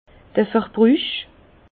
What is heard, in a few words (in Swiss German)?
"verbruch", Bas Rhin; vin; consommation